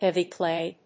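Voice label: "heavy clay", English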